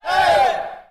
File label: hey, group of men